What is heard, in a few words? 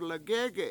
legEge7 to rub, Washo